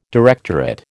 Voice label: "directorate", American